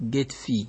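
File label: "gate fee", Nigerian Pidgin, male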